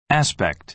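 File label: "aspect", American English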